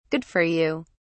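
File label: "good for you", British English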